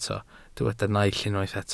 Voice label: "naill", welsh